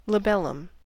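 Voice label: "labellum", American English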